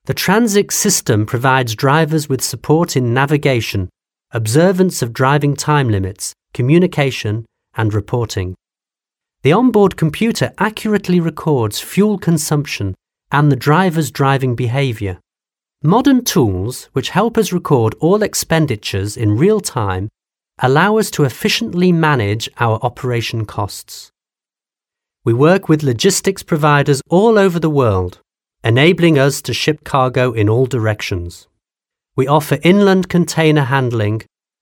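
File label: English British male e-learning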